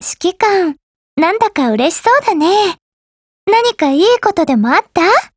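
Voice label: LOADING Japanese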